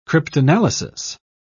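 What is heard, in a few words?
"cryptanalysis", British English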